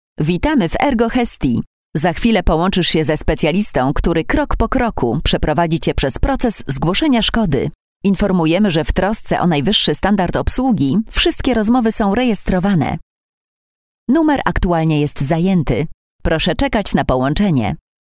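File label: interactive voice response; ERGO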